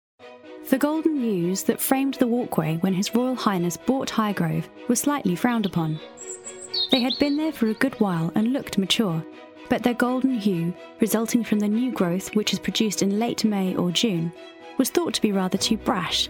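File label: British English, female, corporate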